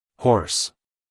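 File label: "horse", American English, male; everyone-can-use-english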